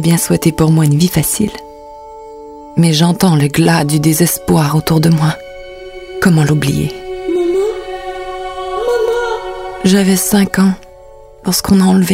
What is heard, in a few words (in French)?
Voix Jeu Video Francais